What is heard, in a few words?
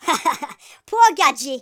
fatality, Geordie; speech